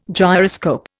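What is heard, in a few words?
"gyroscope", Indian English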